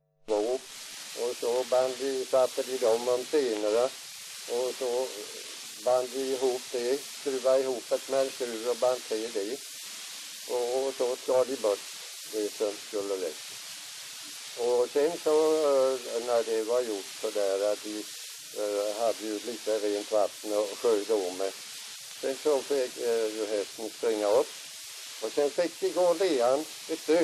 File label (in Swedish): dialekter; Folkminnen; Svenska sagor